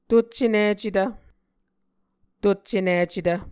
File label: "tuccenicetv", muscogee; Mvskoke Words with Repeated Consonants; Onvkv Ohhvyayices; A Dictionary of Creek/Muskogee; SIL International